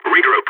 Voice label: radio bot regroup, balkan; vo